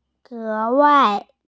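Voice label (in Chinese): "ge2wai4", Mandarin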